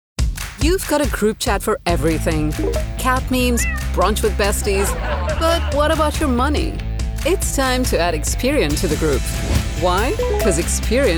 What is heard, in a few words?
Explainer